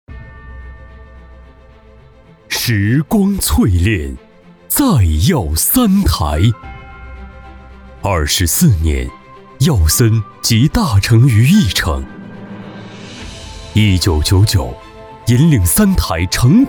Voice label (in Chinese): 地产广告大气厚重; 再耀三台